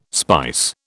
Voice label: "spice", British